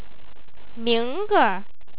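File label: "mi2ngrge", Chinese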